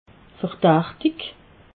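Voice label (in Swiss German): "verdachtig", Haut-Rhin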